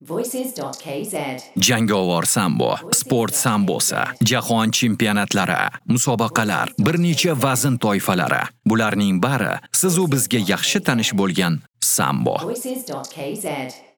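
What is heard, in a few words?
Uzbek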